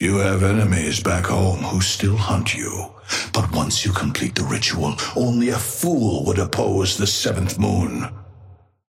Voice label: Patron male ally yamato start; Amber Hand voice line - You have enemies back home who still hunt you, but once you complete the ritual, only a fool would oppose t